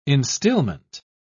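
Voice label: "instilment", British English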